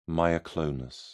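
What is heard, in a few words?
"myoclonus", British English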